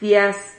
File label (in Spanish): "fias", Silabas